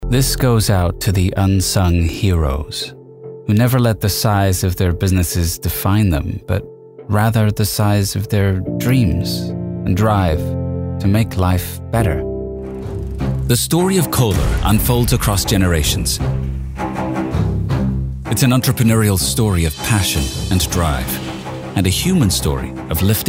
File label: Global non regional mid atlantic Voice